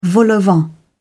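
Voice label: "vol-au-vent", British English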